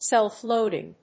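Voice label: "self-loading", English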